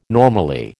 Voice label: "normally", American